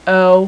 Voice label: "o" female, alphabet; Web Clip Empire 150,000